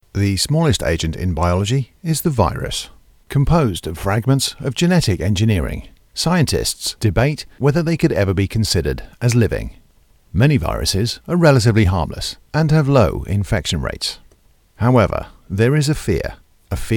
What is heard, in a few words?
UK